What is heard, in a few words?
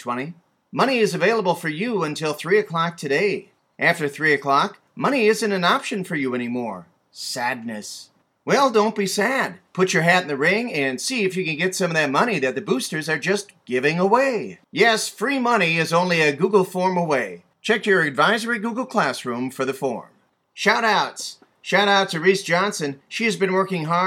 Announcements